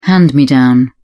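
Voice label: "hand me down", British English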